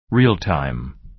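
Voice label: "real-time", American English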